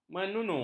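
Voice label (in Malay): "menunu", Malay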